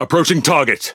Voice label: Speech Pilots Torpedo Engage, EN GB; World Of Warships

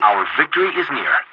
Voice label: pilot tickets lead start ally, english; War Thunder

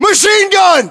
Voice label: US threat mg, battlechatter, english

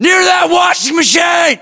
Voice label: landmark near washing machine, battlechatter us; localized english

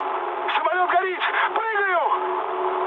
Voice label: on fire, mood med, speech ru; War Thunder

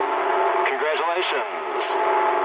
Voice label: eng player killed enemy mood low, speech eng; War Thunder

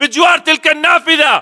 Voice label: landmark near window, battle chatter, english

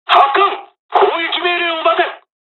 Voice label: japanese, dialogs japanese

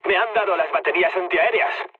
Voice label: damaged aa, mood low, speech; War Thunder

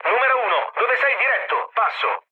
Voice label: chat, speech it; War Thunder

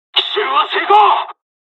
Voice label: japanese first wave; dialogs; War Thunder

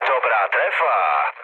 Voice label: bomb success, speech cze, mood low; War Thunder

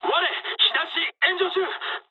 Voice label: on fire mood high, speech jp; War Thunder

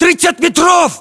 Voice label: threat distance, ru; localized english

